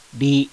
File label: "RI", kana